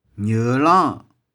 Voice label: "nieu1long1", 玉環

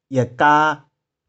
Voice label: "ih4ka3", 玉環

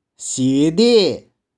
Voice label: "shie1die1", 玉環

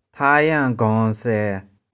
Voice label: "tha3yang1gong3sae1", 玉環

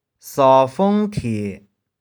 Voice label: "sau3fung1thie1", 玉環

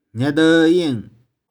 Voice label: "nih4deu1ing2", 玉環

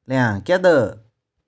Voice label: "liang2kih4deu1", 玉環

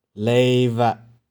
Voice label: "le1veh4", 玉環

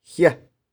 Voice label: "hih4", 玉環

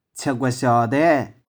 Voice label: "chih4kueh4shiau1dae6", 玉環

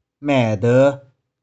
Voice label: "mae2deu5", 玉環

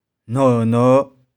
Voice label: "no1no5", 玉環

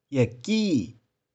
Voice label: "ih4ki6", 玉環

